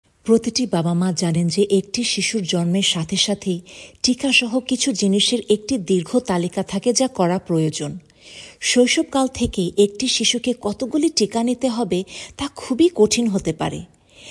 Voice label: female bengali explainer